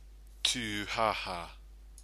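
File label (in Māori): "tuhaha", Māori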